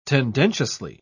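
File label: "tendentiously", British English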